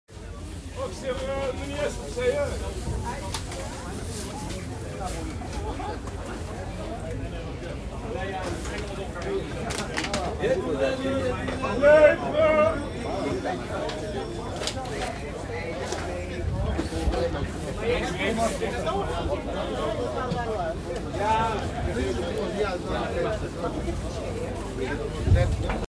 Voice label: People talking market, Rotterdam Field Recordings